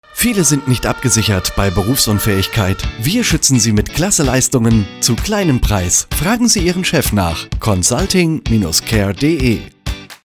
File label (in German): Funkspot; Consulting and Care